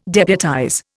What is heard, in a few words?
"deputize", Indian